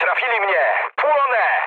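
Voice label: Polish on fire mood low; speech; War Thunder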